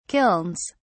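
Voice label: "kilns", British English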